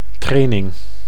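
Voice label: "training", Dutch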